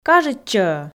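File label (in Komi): "кажитчӧ-ӧ", Komi, female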